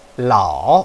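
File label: "lao3", Chinese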